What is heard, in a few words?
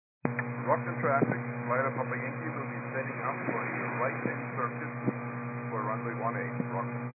122 725MHz, scanner radio